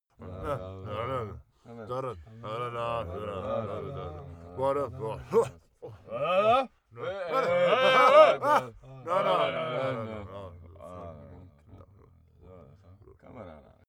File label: talk calm close